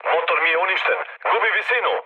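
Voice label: Serbian damaged engine mood low, speech; War Thunder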